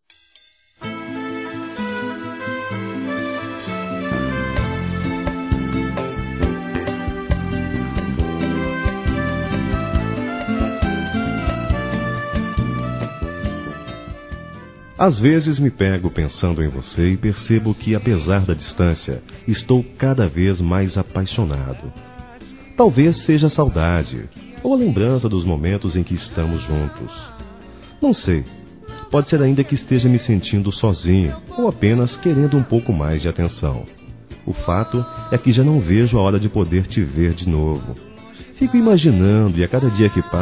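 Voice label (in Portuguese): ROM. DISTANTE, Telemensagens, Românticas masculino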